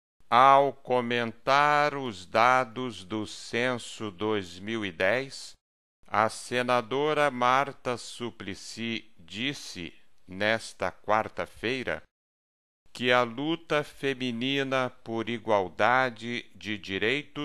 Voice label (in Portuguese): em ritmo lento; ao comentar os dados do censo